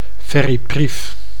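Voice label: "ferriprief", Dutch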